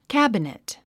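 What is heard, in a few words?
"cabinet", English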